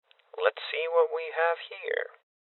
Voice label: Voices Open Safe Male Young; rebel cops 2019 gamerip

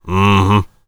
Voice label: warrior conversation emotion agree

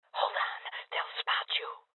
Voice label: Voices Guardian Female Old; rebel cops 2019 gamerip

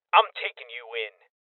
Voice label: Voices Order To Surrender Male Young

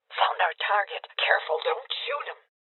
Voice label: Voices Boss Spotted Female Old; Rebel Cops

